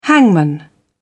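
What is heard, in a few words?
"hangman", British English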